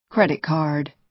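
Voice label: "credit card", American English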